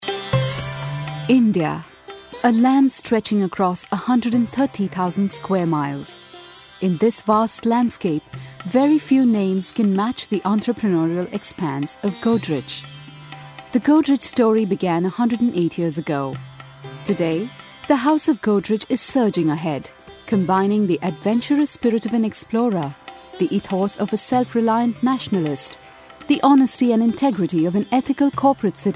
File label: Indian accent